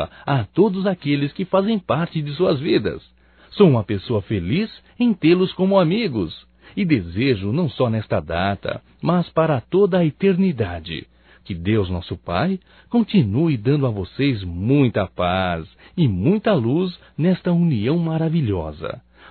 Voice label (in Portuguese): Aniversário Casamento Masculino, Só Voz; Telemensagens; Telemensagens 2017